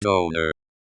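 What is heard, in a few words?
"doner", US English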